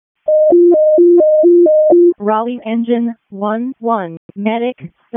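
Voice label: Wake County EMS ALERT, p25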